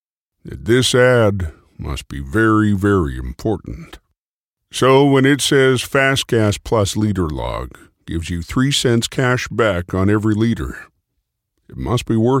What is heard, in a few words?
Voice Talent Celebrity Voices; Sam Elliot